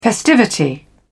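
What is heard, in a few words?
"festivity", British English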